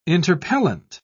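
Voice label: "interpellant", British English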